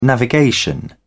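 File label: "navigation", British English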